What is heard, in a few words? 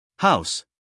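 "house", American English, male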